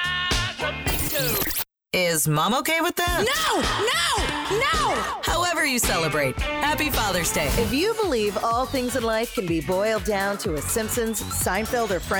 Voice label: radio imaging women classic hits